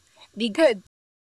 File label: Voice Acting es